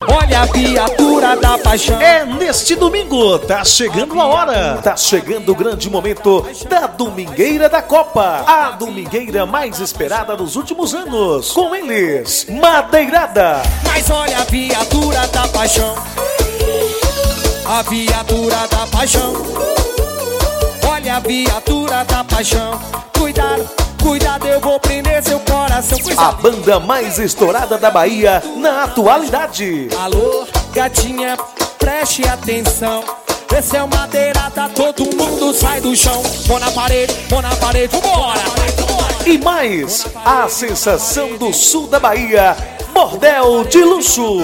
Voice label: carro de som; domingueira da copa

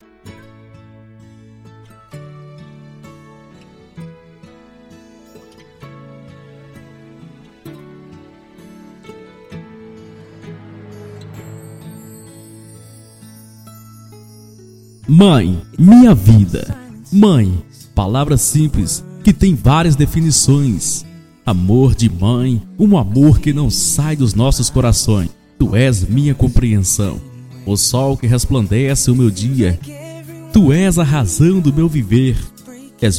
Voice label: locutor apaixonado, mãe

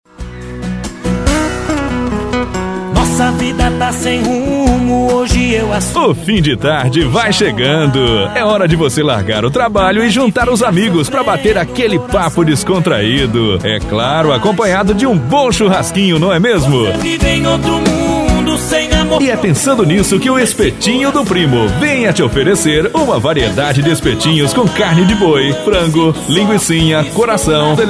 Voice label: voz para varios estilos de comerciais

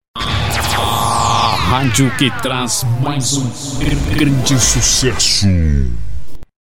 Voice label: vinhetas a radio que traz mais um grande sucesso efeito voz; produção gilmar brito

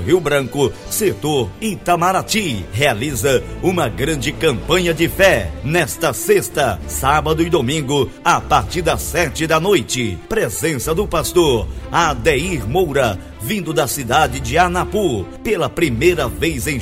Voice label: chamada igreja

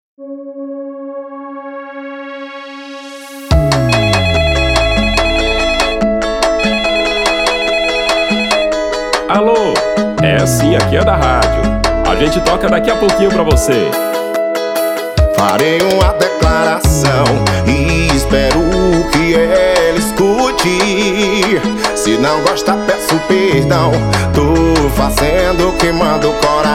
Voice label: locutor

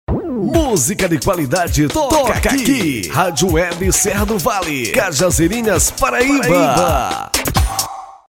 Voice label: locutor

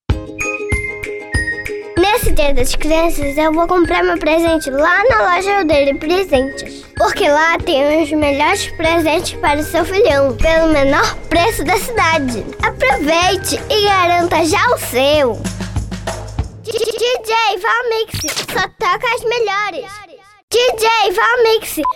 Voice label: infantil

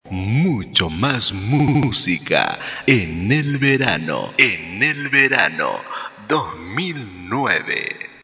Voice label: vinheta espanhol

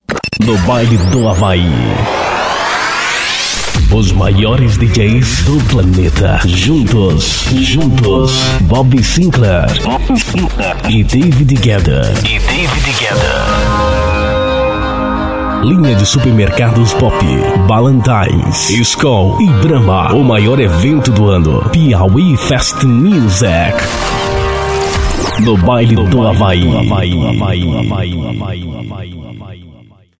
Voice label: offs locucao

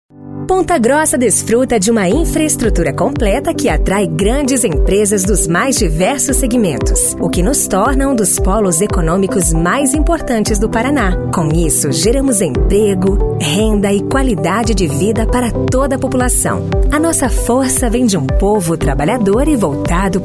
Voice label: locutora

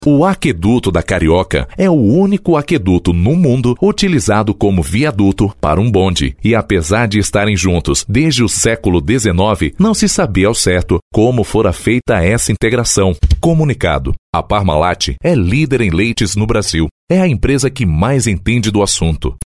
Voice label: locucao institucional

